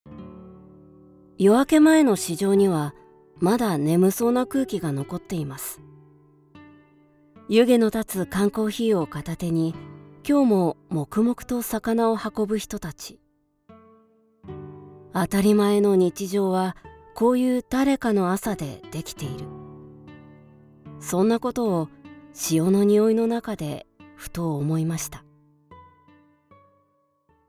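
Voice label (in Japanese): 素朴 夜明け前の市場, ナレーション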